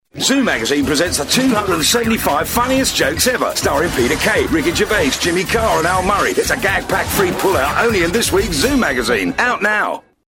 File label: Commercial; Zoo mag